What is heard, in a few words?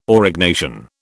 "Aurignacian", British